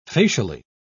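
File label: "facially", British English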